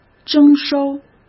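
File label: "征收", Mandarin Chinese; hsk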